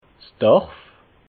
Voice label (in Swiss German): "dorf", alsace